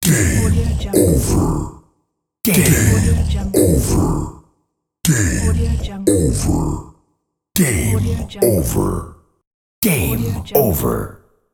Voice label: epic game over voice

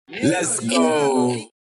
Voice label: lets go adlibs

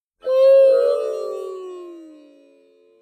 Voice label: slow oof

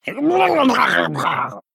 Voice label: male murloc

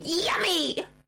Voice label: person yelling yummy

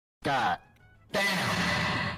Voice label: "god damn" with reverb

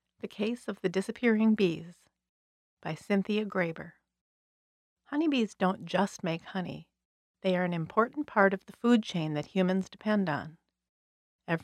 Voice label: voiceover

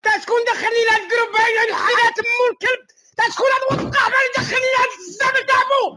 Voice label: angry

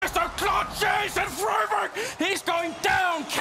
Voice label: mystery voice

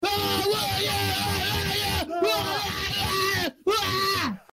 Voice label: rage

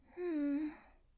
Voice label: female hmm

